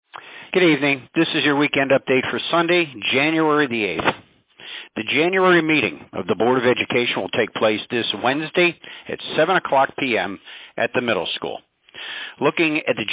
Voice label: Robocall